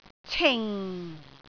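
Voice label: "cing4", Cantonese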